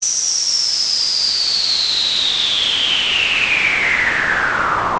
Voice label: Fric Gliss; Synthetic; with filter center frequency falling; fricative noise